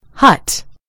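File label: "hut", British English; gcompris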